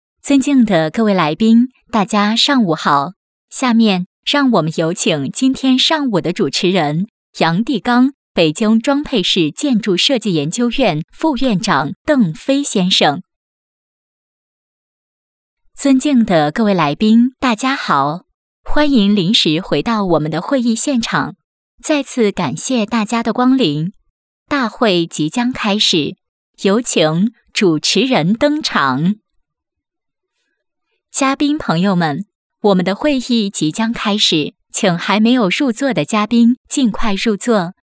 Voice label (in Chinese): 女 开场 会议开场提示